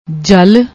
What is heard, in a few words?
"jal", Punjabi